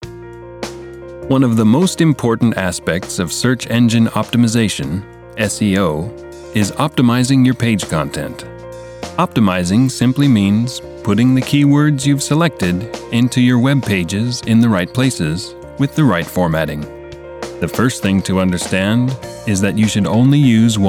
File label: Narration; Narration Demo